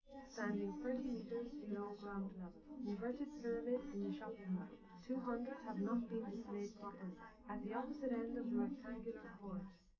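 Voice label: female, live recording; SiSEC11; underdetermined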